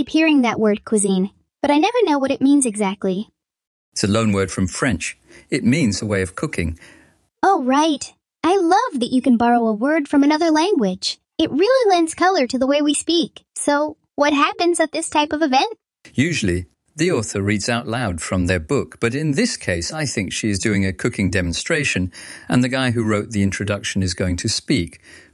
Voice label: Library Dialogue